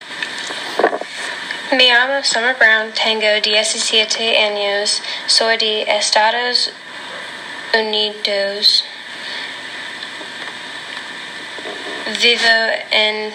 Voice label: spanish